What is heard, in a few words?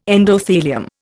"endothelium", Indian